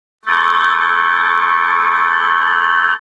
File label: scared, speech; Cyberworms